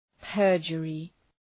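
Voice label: "perjury", English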